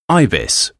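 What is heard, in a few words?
"ibis", English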